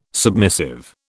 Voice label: "submissive", British